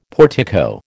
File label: "portico", American